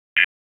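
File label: Dispatch, p25; WSO DISP 3; wake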